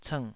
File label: "cngh3", Taiwanese